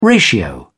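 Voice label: "ratio", British English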